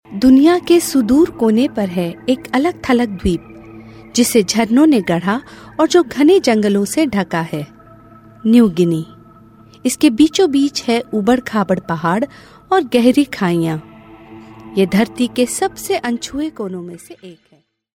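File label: Hindi, female, narration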